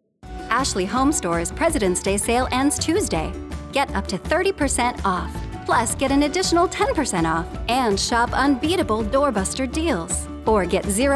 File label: Commercial